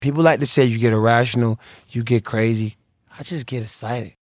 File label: excited